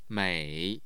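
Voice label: "mei3", Mandarin Chinese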